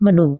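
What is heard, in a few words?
"menu", Indonesian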